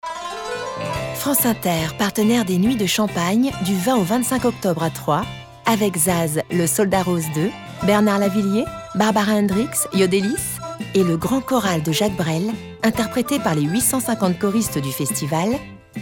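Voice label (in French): douce informative, voix off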